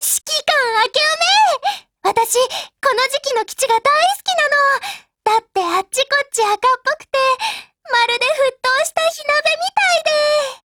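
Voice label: NEWYEAR, Japanese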